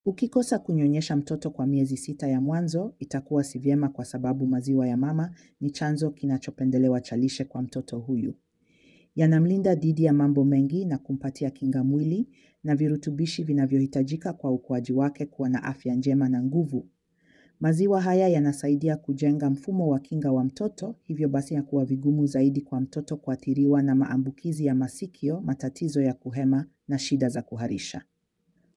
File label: swahili breastfeeding; dimagi